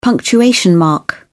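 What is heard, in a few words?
"punctuation mark", British English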